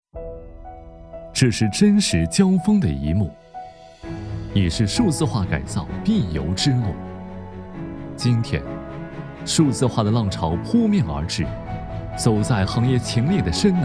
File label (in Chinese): 男 科技企业; 数字化